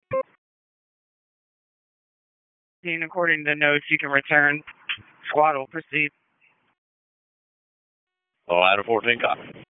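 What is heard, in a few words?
FIRE Operations, p25; FIRE OPS 4; wake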